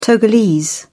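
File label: "togolese", British English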